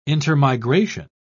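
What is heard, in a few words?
"intermigration", British English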